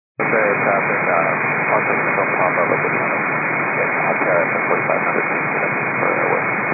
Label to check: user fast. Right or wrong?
Wrong